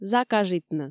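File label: "закажитны", Komi, female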